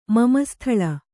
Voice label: "ಮಮಸ್ಥಳ", Kannada

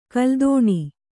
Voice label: "ಕುಲ್ಲತನ", Kannada

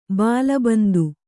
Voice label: "ಬಾಲಬಂದು", Kannada; bāla bandu; noun

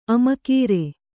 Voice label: "ಆಮಗರಿ", Kannada

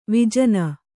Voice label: "ವಿಜನ", Kannada

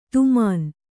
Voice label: "ಧಮನಿ", Kannada

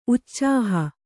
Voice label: "ಉಛಾಹ", Kannada

